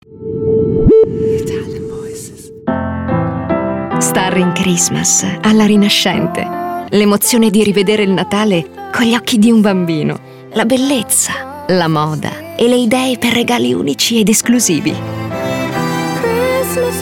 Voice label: ITALIAN VOICES Warm Comforting